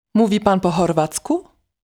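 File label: Polish, male, Sprechen Sie Kroatisch?; Mówi Pan po chorwacku?; Polnisch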